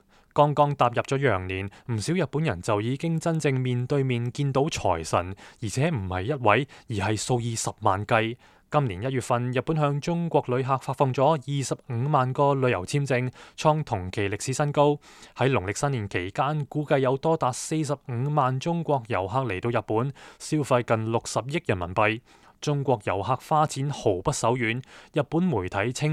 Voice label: news